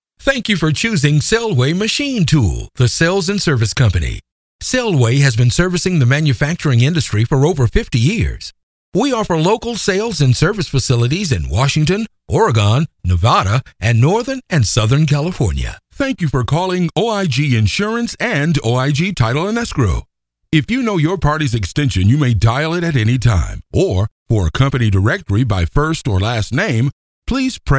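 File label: US phone voice, female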